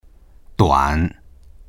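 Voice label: "duan3", Mandarin Chinese; 短; 短い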